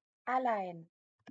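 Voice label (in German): "allein", German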